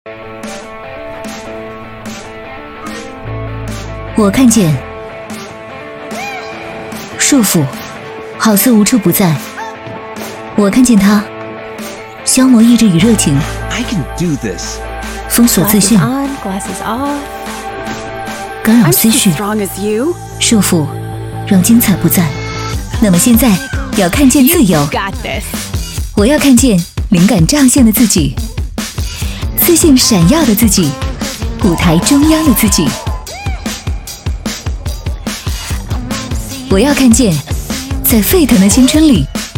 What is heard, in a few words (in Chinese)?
女 态度个性短片; 强生安视优